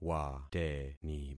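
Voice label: "wateni", concatenated syllables; Affixing experiment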